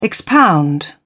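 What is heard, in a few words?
"expound", British English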